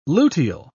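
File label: "luteal", British English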